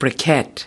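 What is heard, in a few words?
"briquette", US English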